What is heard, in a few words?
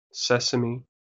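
"sesame", American English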